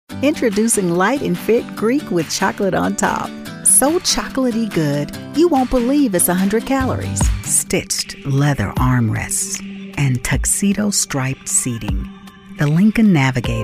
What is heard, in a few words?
Commercial